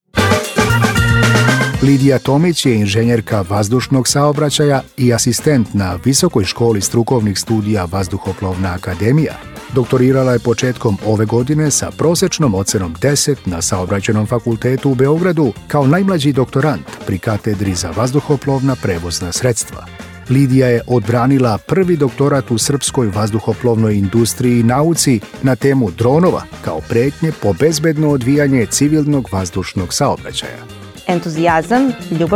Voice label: voice over event recap video highlight story srpski narator